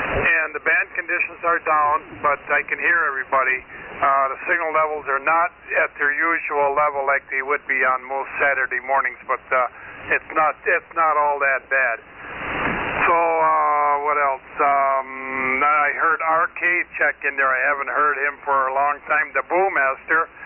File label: Heathkit SB-102 & SB-200 Amp; Greenleaf, Wi.